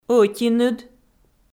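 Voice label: "ӧтиныд" Komi, female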